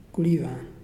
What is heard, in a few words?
"say", Muyuw; Lae Unitech